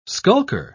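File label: "skulker", British English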